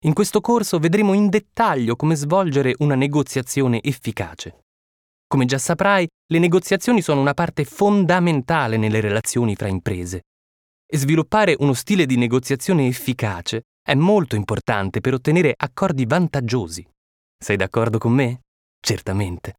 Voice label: it elearning, italienisch; sprecher

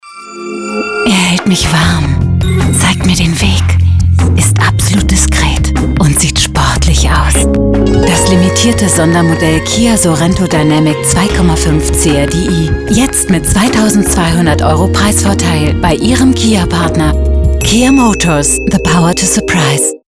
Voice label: werbung, deutsch frauen

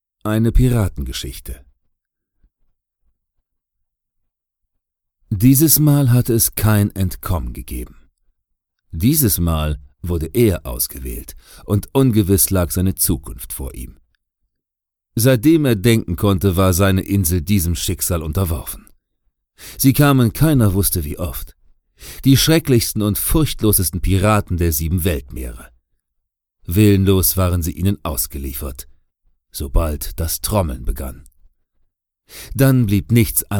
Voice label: Erzaehlung; sprecher

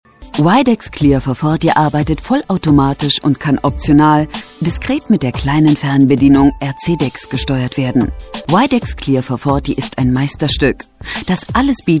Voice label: werbespot, deutsch frauen; sprecher

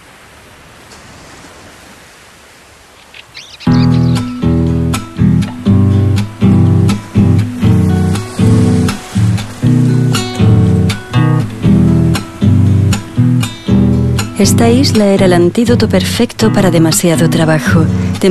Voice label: spanisch

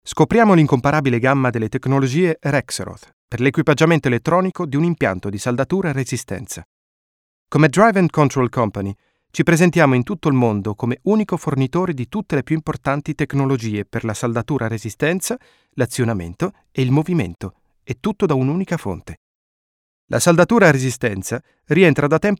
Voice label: italienisch elearning; sprecher

